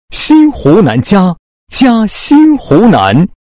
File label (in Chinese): 男 解说; 三湘四水